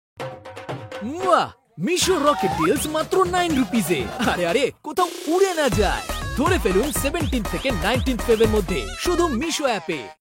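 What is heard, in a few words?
Bengali, male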